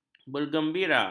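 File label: "bergembira", Malay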